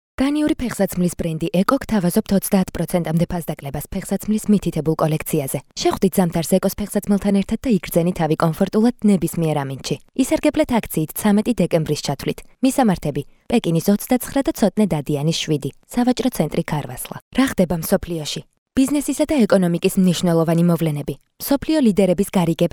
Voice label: Georgian female

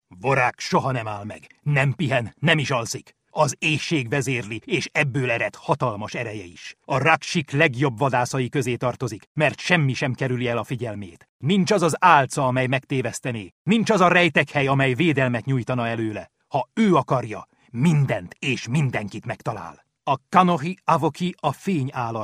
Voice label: Hungarian male

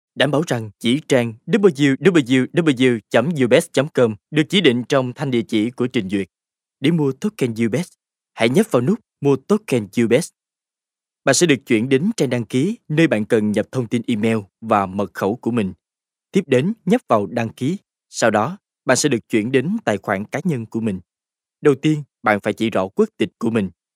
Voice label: Vietnamese

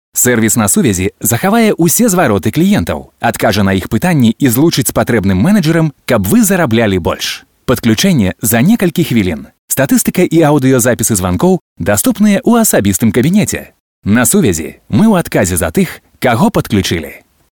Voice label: Belarusian male

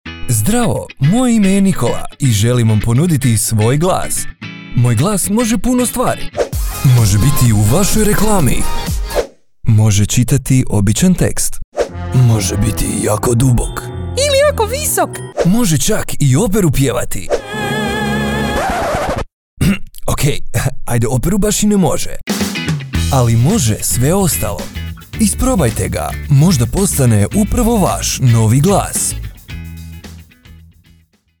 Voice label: Croatian

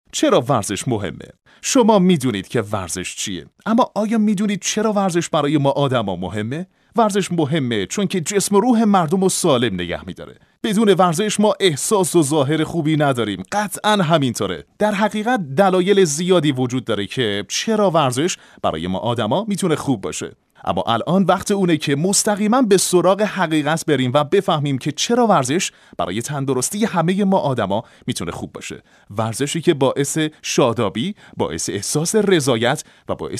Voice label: Persian Farsi male

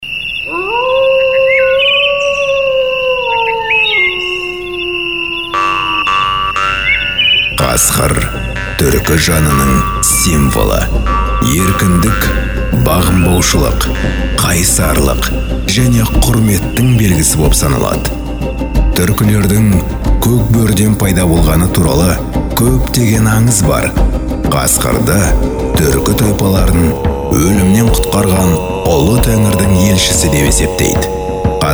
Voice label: Kazakh male

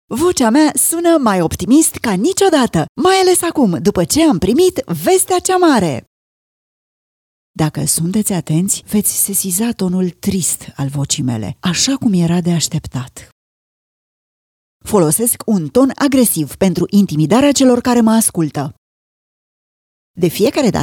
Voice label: Romanian female

